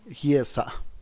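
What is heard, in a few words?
"giesa", Teotitlán del Valle Zapotec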